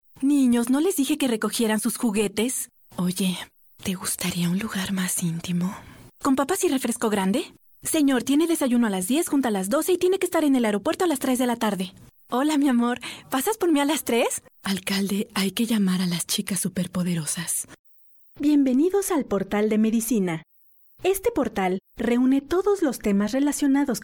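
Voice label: Spanish Female Commercial, Upbeat, Energetic, Emotive; Commercial Voiceover, TV and Radio, International Voiceover, Spanish (Latin and Castillian)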